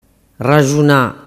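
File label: "raxuna", Sicilian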